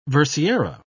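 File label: "versiera", British English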